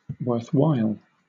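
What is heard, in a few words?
"worthwhile", English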